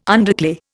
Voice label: "underclay", Indian